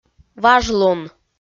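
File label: "важлун", Komi, female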